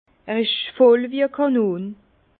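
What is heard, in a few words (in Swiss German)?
"kanon", Alsace Bas Rhin; vin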